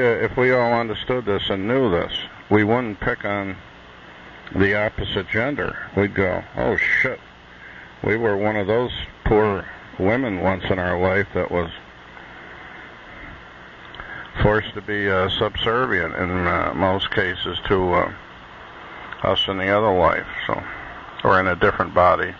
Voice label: male and female